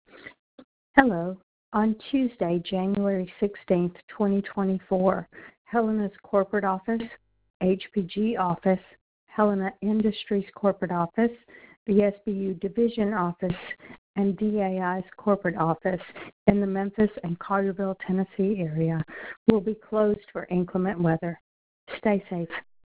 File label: Voice Message